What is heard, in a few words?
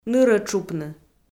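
"нырӧ тшупны", Komi, female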